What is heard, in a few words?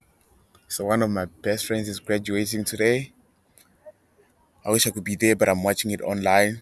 noisy reference